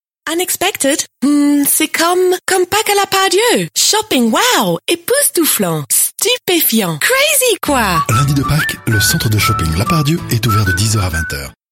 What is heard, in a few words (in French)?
Français accent Anglais